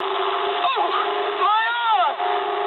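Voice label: damaged pilot mood high, speech English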